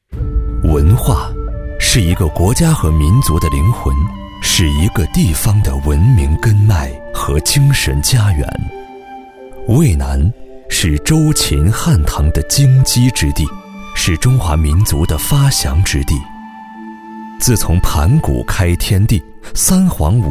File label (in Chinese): 男 宣传片 旅游宣传片 娓娓道来; 渭南市